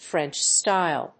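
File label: "French-style", English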